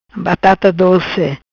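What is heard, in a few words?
"Batata Doce", patua; Girl from mainland China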